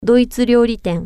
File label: german restaurant, japanese